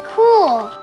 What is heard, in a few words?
cool kid, voice; expletives